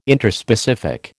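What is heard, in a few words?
"Interspecific", American English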